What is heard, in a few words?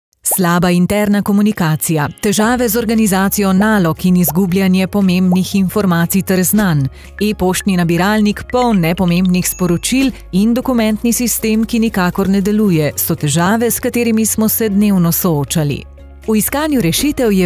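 e-learning, Slovenian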